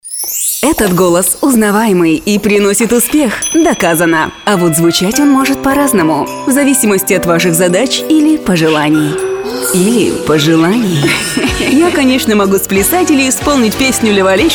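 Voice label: Russian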